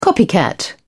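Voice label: "copycat", British English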